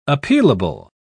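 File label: "appealable", British English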